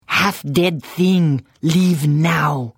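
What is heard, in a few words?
Hello, Khajiit female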